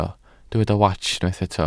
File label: "wats", Welsh